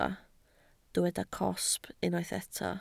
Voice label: "cosb", Welsh

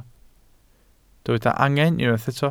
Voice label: "angen", Welsh

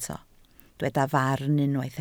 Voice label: "farn", Welsh

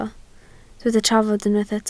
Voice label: "trafod", Welsh

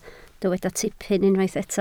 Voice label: "tipyn", Welsh

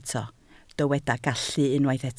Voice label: "gallu", Welsh

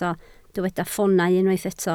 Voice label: "ffoonau", Welsh

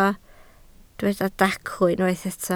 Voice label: "dacw", Welsh

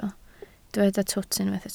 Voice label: "twt", Welsh

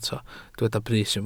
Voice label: "brys", Welsh

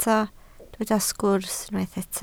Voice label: "sgwrs", Welsh

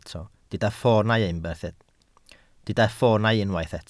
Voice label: "ffoonau", Welsh

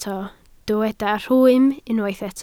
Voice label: "rhwym", Welsh

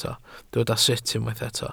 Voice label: "sut", Welsh

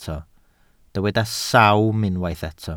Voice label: "sawm", welsh

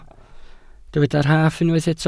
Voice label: "rhaff", Welsh

